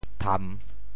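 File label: "tham3", Teochew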